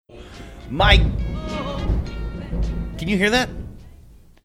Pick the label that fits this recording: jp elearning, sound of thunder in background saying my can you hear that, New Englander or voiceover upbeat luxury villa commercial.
sound of thunder in background saying my can you hear that